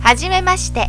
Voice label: "hajimemashite", Japanese